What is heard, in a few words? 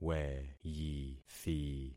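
"weyithi", concatenated syllables; Affixing experiment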